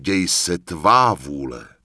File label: Night Elf Male Yes; wowpreklad; czwow; NightElfVocalMale